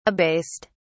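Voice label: "abased", British English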